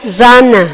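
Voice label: "rana", Spanish; fonetica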